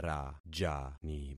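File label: "rajani", concatenated syllables; Affixing experiment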